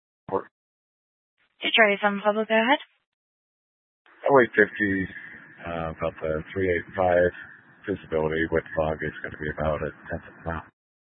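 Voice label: Dispatch, Scanner audio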